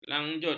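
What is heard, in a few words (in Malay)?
"lanjut", Malay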